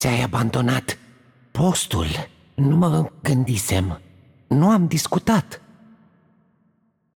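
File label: voice over, Romanian; Kennen; game outro; bloom minigame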